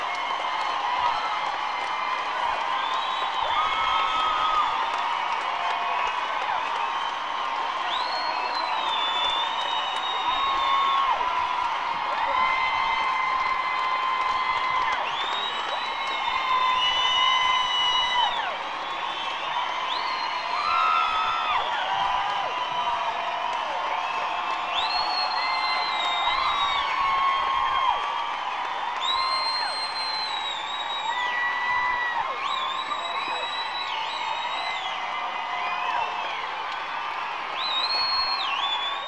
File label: talking with crowd